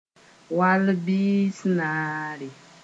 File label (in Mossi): "wall bĩis-naare", Mooré